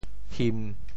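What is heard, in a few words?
"him1", Teochew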